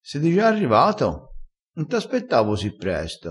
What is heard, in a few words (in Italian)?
"di gia", crespinese